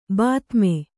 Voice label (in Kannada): "ಬಾತ್ಮಿ", kannada